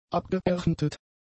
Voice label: "abgeerntet", German; adjectives

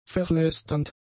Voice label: "verlästernd", German; adjectives

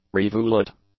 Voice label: "rivulet", English

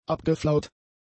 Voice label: "abgeflaut", German; adjectives

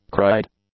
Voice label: "cried", English; adjectives

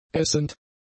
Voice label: "essend", German; adjectives

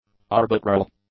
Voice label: "arbitral", English; adjectives

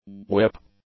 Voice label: "whip", English; nouns

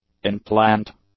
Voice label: "in-plant", English; adjectives

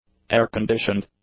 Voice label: "air-conditioned", English; adjectives

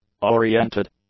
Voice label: "oriented", English; adjectives

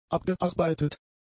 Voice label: "abgearbeitet", German; adjectives

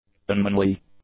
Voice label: "unmanly", English; adjectives

